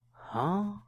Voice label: "Huh" Vocal

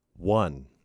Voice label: "One", M

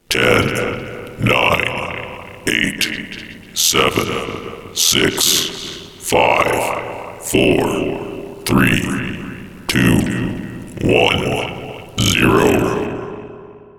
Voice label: Vox Countdown

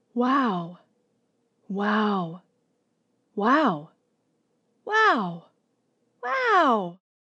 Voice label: Wow Vocal Expression Group

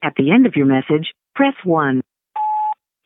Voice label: Voicemail Message

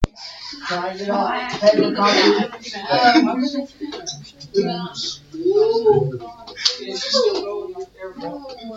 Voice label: Group Talking

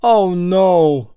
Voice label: Male Voice "Oh No"

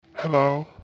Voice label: Deep Hello

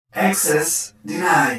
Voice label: Robot Voice Access Denied

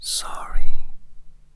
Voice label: Male Voice Whispering "Sorry" English

